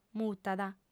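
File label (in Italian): "mutat", lingua sarda, fem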